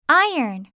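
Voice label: "iron", English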